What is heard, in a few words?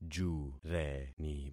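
"jureni", concatenated syllables; Affixing experiment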